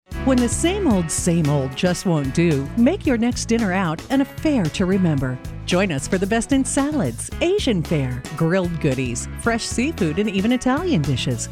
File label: female